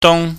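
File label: "dung", Cantonese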